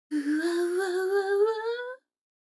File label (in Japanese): 女の子台詞, ASMR